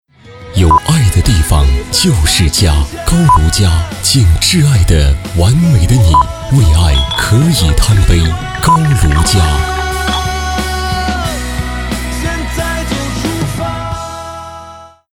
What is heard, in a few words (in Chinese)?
广告 酒类 酒类 温情, 男; 高炉家